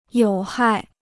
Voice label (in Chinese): "有害", Chinese